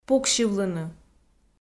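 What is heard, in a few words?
"пуксьывлыны", Komi, female; kpv audio dict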